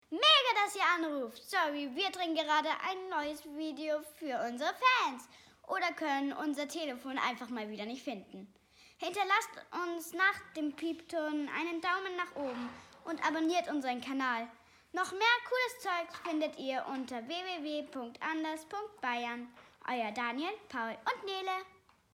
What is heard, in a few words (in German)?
Anrufbeantworter